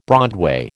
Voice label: "broadway", American

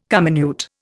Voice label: "comminute", Indian

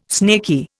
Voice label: "snaky", Indian

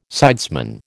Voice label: "sidesman", American

